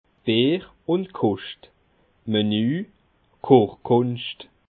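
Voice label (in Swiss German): "bierkoscht", Bas Rhin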